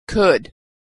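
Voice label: "could", American English; vowel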